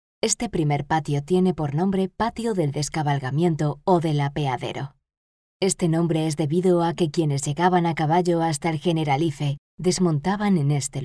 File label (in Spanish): female cast audioguia